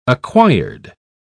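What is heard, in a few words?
"acquired", British English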